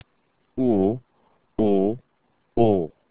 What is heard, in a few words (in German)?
"o", German alphabet